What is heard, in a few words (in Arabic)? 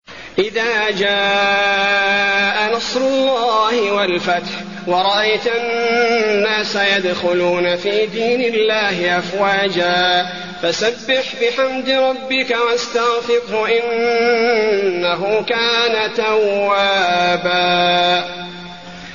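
النصر, تلاوة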